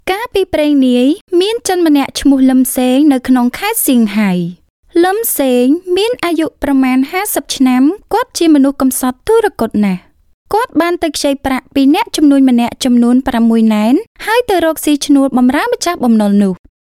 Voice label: Khmer Female Voice Sample